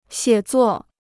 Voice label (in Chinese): "写作", Chinese